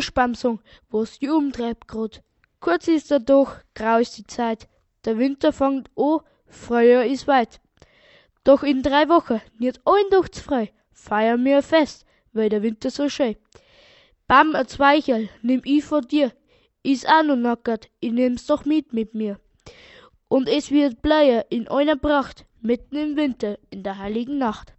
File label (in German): Dialekt